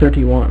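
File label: "thirty-one", male; MacCribbage; InterStellar MacCribbage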